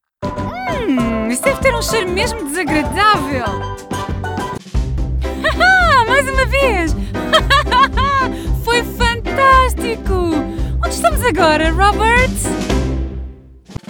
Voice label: portuguese animation

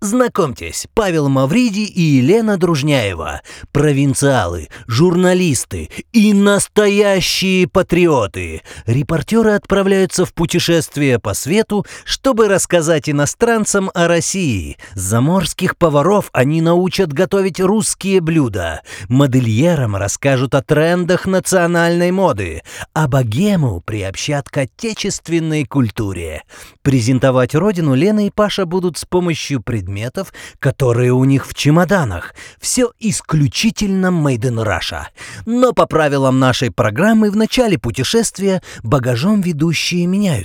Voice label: russian tv shows

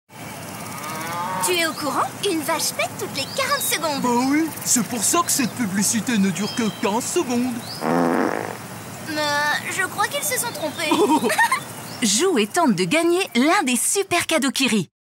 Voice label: french movie trailers

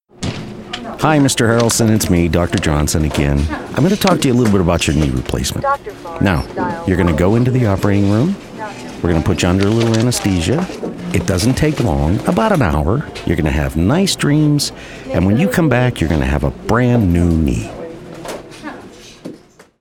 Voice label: english american corporate videos

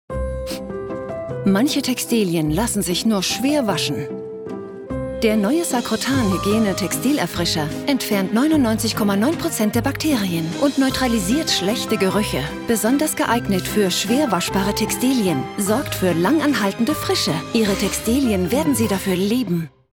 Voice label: german commercial

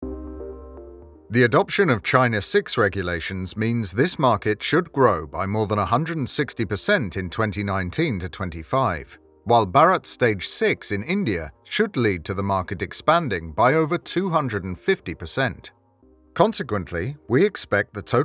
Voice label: english british corporate videos

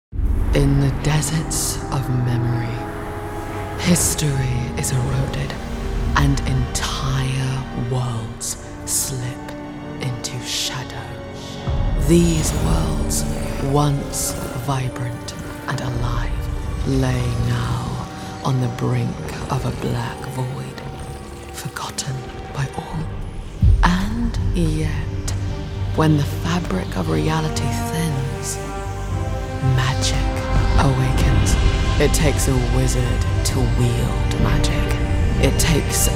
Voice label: english british video games

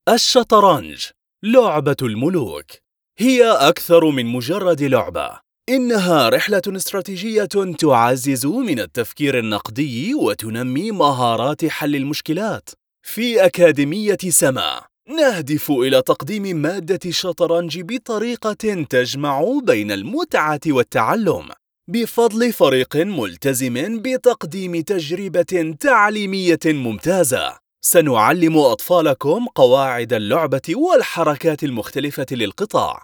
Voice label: arabic commercial

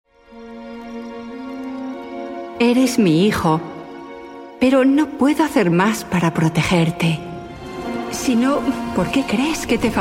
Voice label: spanish castilian video games

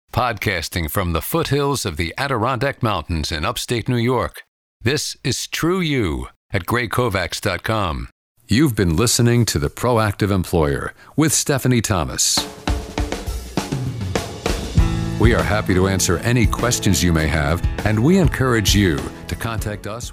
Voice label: english american podcasts; North American English professional, working voice actor

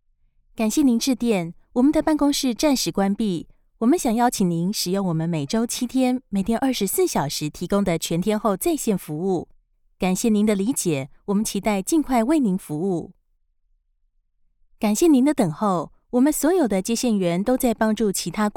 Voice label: chinese mandarin announcements

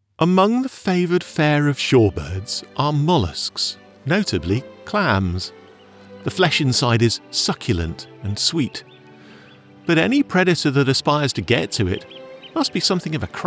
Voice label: english british documentaries

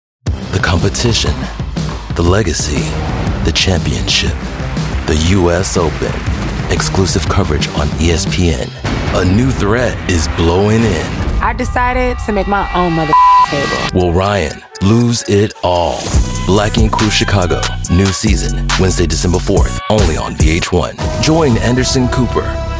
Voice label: english american promos

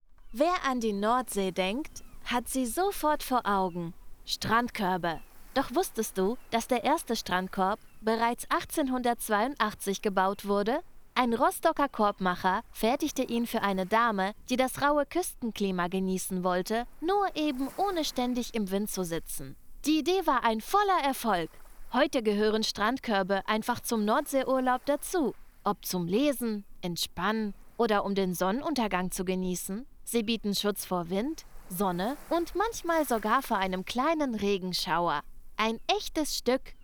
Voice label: german narration

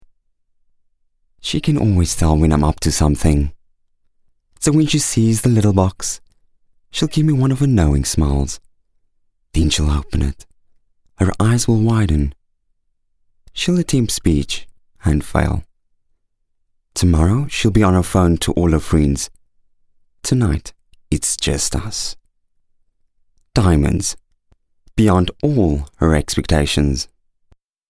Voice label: afrikaans narration

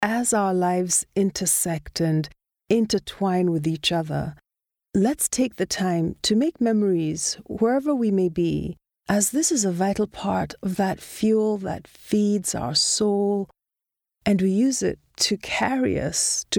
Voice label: english african podcasts

